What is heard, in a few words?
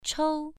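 "chou" Mandarin, first tone, pronunciation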